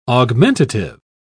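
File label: "augmentative", British English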